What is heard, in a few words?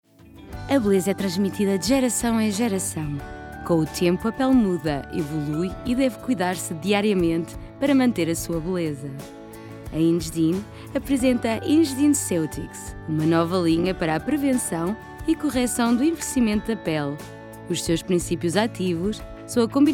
female portuguese comercial